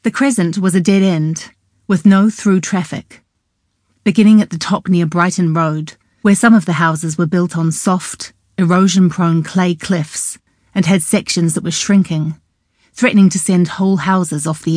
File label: narration